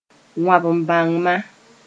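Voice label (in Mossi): "wãb-n-bãngma", Mooré; shrub sp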